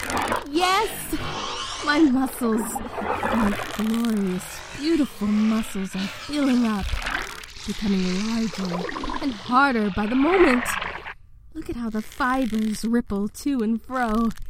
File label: With Effect Bass Boost; voice acted stories; Muscle Growth Spurt